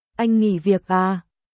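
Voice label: Vietnamese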